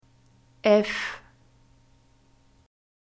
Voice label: alphabet f, German

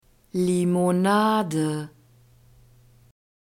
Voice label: "limonade", german; soft drinks new words

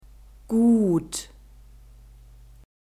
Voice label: "gut", German

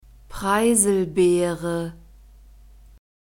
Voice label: "Preiselbeere", German; fruits

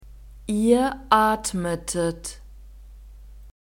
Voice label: "ihr atmetet", German; verbs past tense regular

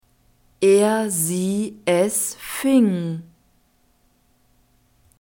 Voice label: "er sie es fing", German; verbs past tense irregular

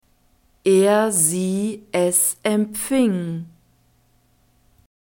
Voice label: "er sie es empfing", German; verbs past tense irregular

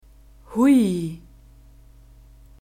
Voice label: "hui", German; diphthongs

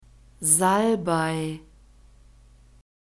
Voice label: "Salbei", German; nouns gender feminine

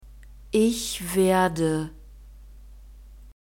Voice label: "ich werde", German; verbs present tense irregular

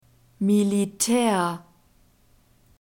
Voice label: "militaer", german

